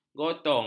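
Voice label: "gotong", Malay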